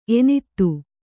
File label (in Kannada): "ಎನತ್ತು", Kannada